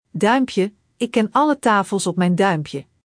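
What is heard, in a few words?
"duimpje", Dutch; Spelling Gr5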